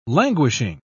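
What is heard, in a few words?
"languishing", British English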